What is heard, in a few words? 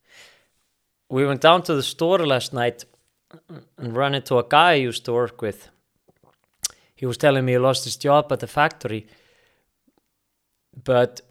Icelandic Accent